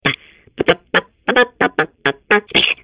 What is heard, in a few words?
babble; portal2